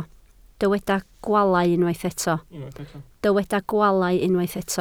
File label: "gwaalau", Welsh